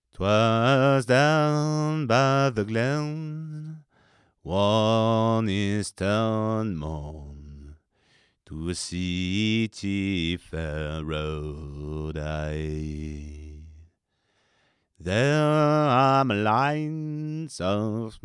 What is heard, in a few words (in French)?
voix sm58 dry; lexicon; ionix fw810; lexicon fw810s